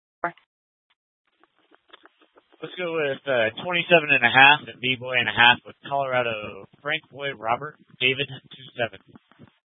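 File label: Incident Tactical, scanner audio recording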